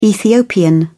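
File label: "ethiopian", British English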